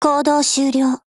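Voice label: OPERATIONOVER, Japanese; GF Alarm; HK416Mod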